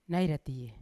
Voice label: "nai ratie", Yawa; over there; unat

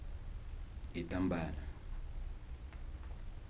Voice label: "scarf", Kibembe

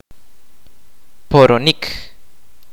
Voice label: "burn", kaqchikel

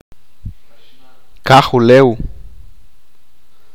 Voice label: "world", achi

